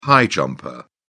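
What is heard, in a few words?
"high jumper", English, British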